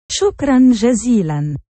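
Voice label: thank you very much, arabic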